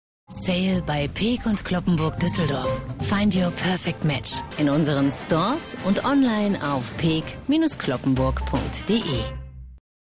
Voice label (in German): tv spot; peek cloppenburg; Muttersprache Deutsch